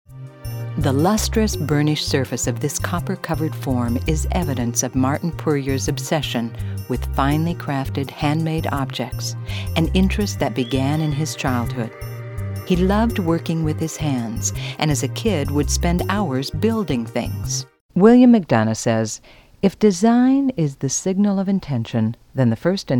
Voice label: Women Narration

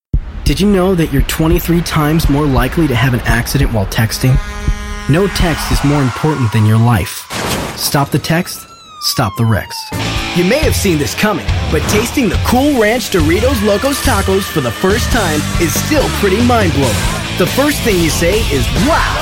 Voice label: Men Commercial

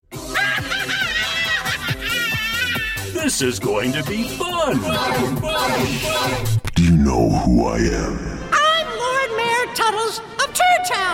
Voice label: Men Animation

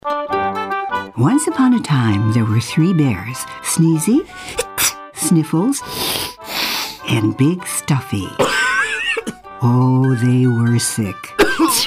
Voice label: Women Commercial

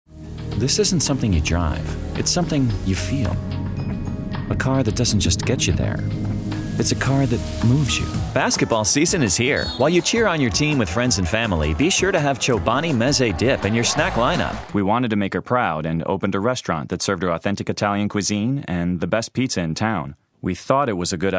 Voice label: Men Commercial

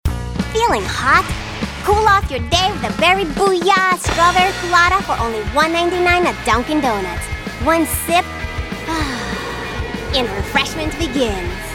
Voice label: Women Commercial

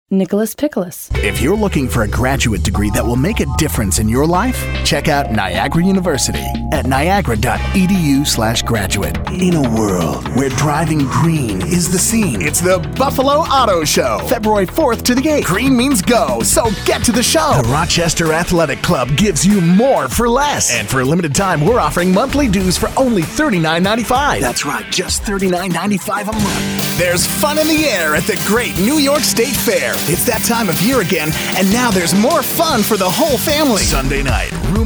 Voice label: Men Commercial

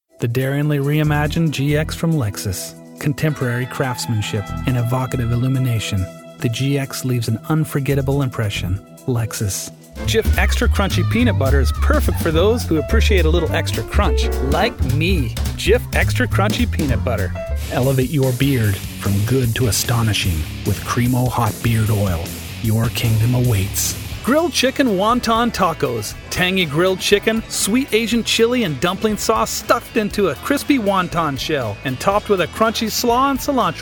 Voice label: Commercial

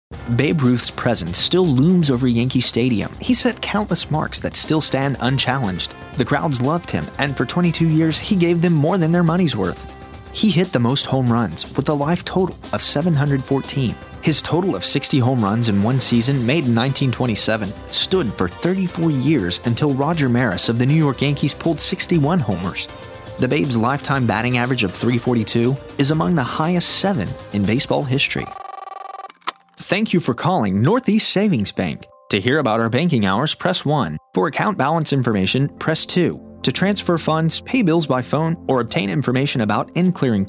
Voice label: Men Narration

